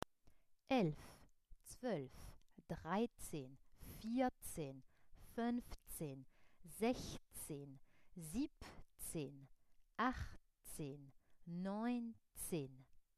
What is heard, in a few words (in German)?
Zahlen 0 bis 10